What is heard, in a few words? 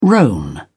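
"roan", British English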